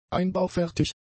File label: "einbaufertig", german; adjectives